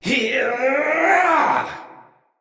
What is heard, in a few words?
Attack Heavy, Battle, Barks, Dialog; Mendicant Wazard